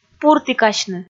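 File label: "пуртікасьны", Komi, female; kpv audio dict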